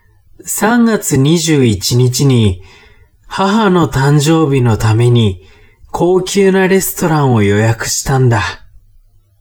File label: man